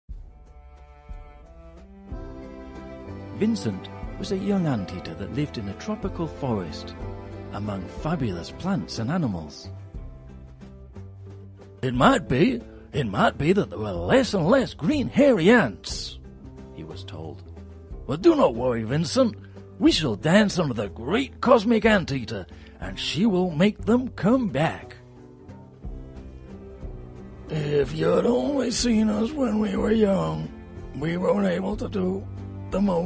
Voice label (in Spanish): locutor ingles britanico de un cuento infantil app de dibujos animado